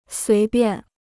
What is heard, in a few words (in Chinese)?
"随便", Chinese